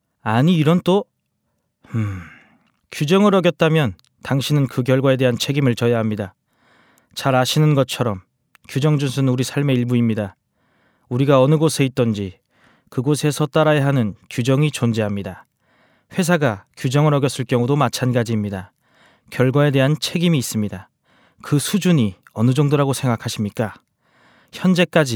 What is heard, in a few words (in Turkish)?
Korece Erkek; seslendirme; erkek sesler